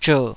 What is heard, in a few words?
"zher3", taiwanese